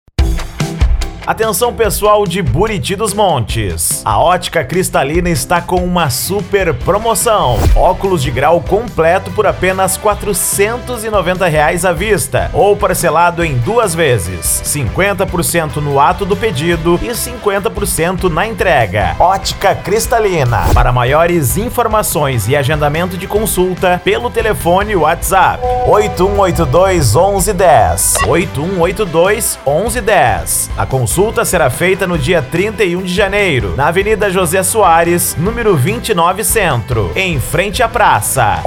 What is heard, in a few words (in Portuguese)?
Locutor Otica; Cristalina; Buriti Dos Montes PI